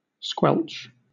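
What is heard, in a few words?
"squelch", British English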